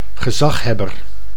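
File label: "gezaghebber", Dutch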